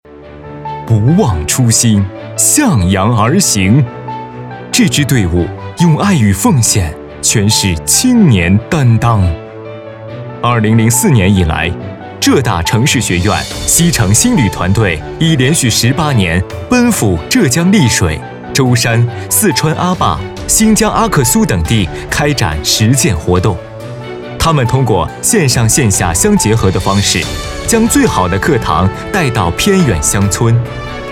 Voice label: 男 党政 歌颂; 不忘初心-向阳而行

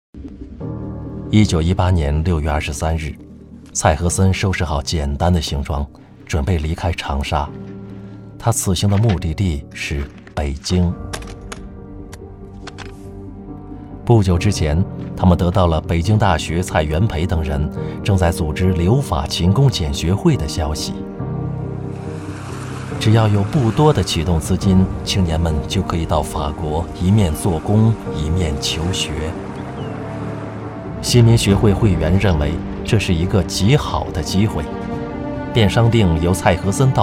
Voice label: 男 纪录片; 青春3

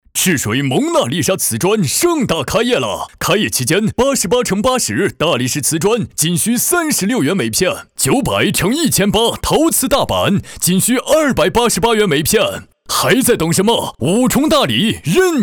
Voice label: 男 广告激情促销; 蒙娜丽莎瓷砖开业

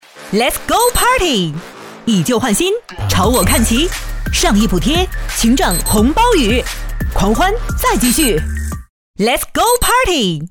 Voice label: 女 品牌广告 个性潮流 五一促销; 2020京东; 五星

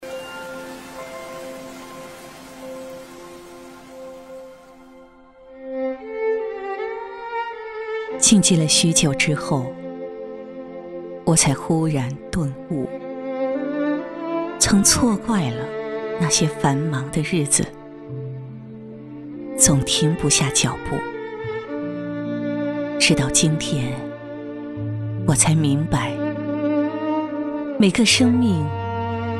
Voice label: 女 独白 舒缓有情; 生命的缘

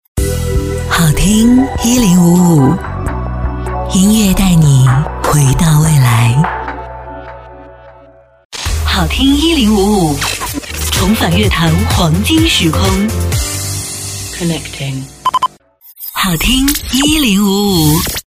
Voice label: 女 电台; 好听1055

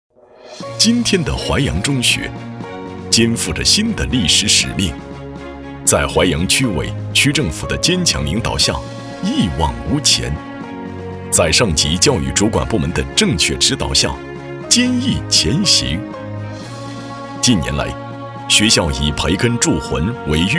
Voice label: 男, 学校汇报类; 淮阳中学

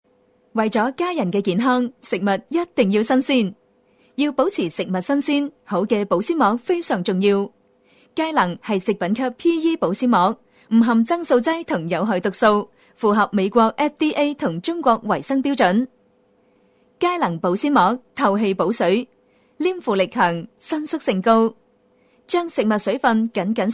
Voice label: 粤女 广告; 佳能保鲜膜

